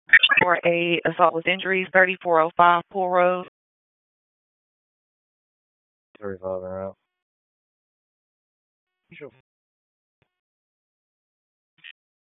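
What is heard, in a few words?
Raleigh Police Southeast District Dispatch, p25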